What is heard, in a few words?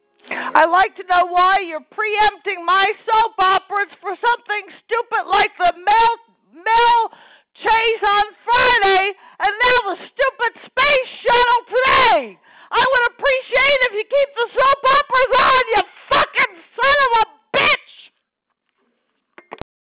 Voice Message